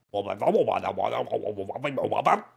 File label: crazy mumble; mvm forever